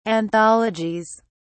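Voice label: "anthologies", British English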